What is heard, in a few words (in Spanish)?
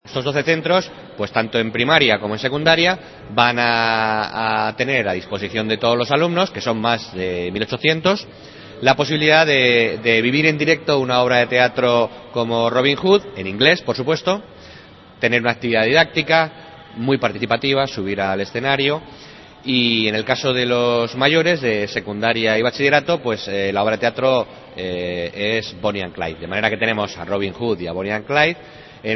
noticias